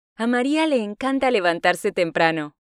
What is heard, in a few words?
Spanish Listening; Spanish Test